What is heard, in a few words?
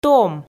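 "том", Komi, female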